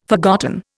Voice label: "forgotten", Indian